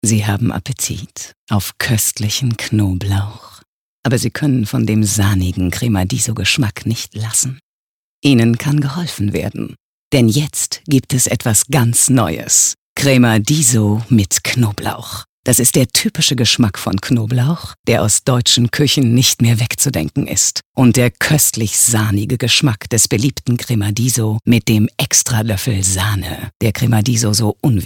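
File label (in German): off, sprecher; LOFT VOICES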